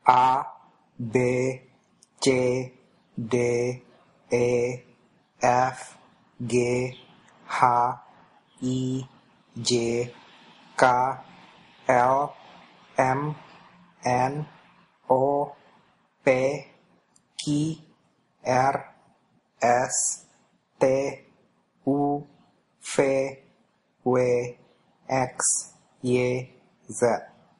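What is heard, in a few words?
alphabet Indonesian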